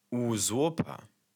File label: usurper, German